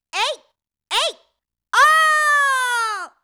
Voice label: えい、えい、おー, スポーツ少女

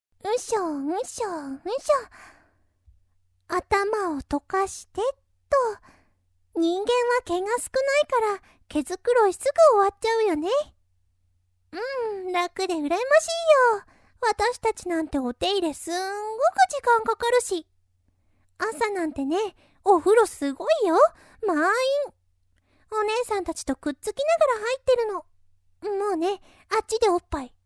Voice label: ボイスのみ; プリエ

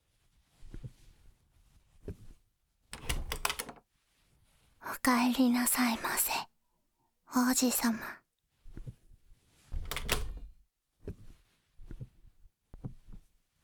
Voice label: 無感情ダウナーヤンデレ少女; 無感情ダウナーヤンデレ少女に弱みを握られて… 第5話

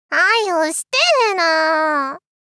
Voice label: はよして～な～ 少女大阪弁×中音域～高音域

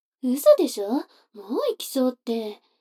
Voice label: 女の子台詞, ASMR

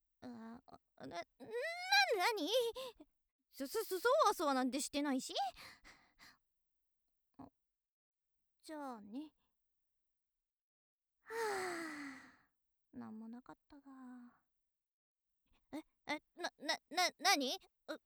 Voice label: 元気JK ホワイトデーだね

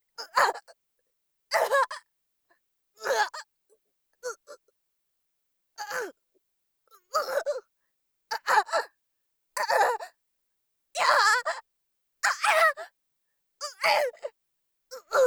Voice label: ワンツー BPM90, 効果音なし

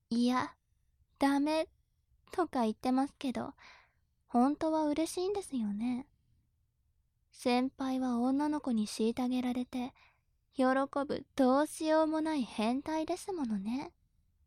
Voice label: 調教中音声

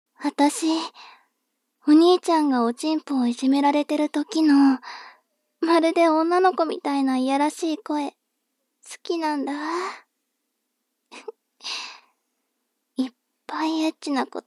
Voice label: 妹 台詞

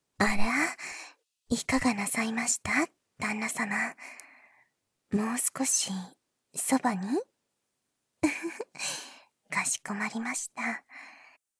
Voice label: 添い寝でおやすみ（囁き重視編集 効果音あり）, ASMR

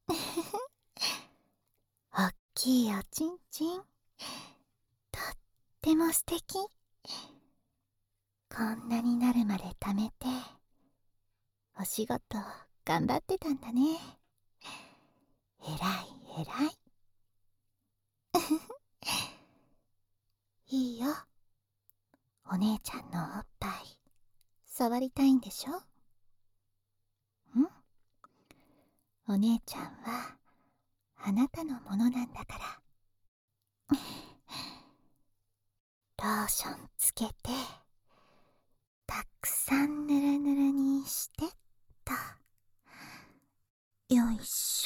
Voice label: 励まし囁き 手コキ バイノーラル 効果音無し

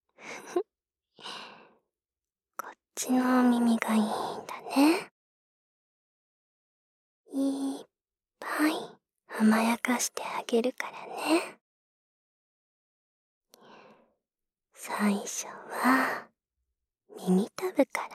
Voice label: 右耳 声のみ; すーぱーあまえたいむ; 声のみver

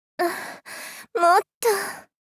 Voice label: あっ、もっと・・・ お姉さん×低音域

